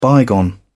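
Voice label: "bygone", English, British English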